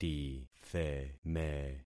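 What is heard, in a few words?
"titheme", concatenated syllables; Affixing experiment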